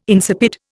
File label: "insipid", Indian